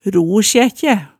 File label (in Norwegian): "ro-sjekkjæ", Hemsedal